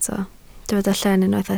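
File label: "llen" Welsh, spoken word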